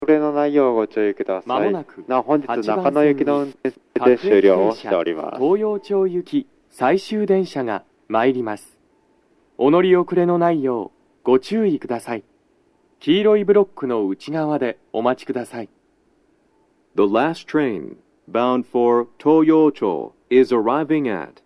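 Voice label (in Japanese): 接近放送 男声, 各駅停車 東陽町行き 最終電車; 快速 の通過待ちはありません; 収録者:こたけ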